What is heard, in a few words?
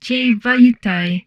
humanoid portrait female; Stellaris; humanoids